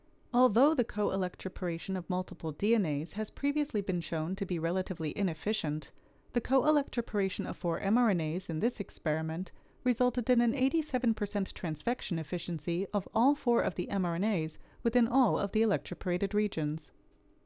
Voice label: Voiceover